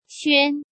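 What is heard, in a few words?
"Xuān", Chinese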